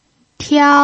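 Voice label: "tiao", Mandarin Chinese pinyin, first tone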